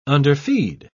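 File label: "underfeed", British English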